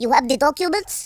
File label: orders, Russki